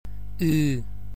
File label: ao (Donegal), gaelic